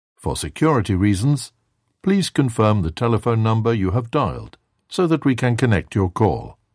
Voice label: ivr